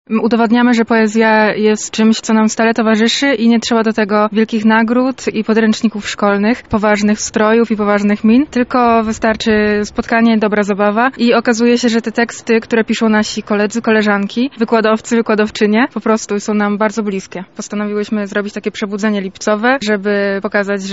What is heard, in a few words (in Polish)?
Open mic, Poetycki wieczór; Poetycki wieczór z mikrofonem dla każdego; Lublin; Radio Centrum; Lublin lubi poezję.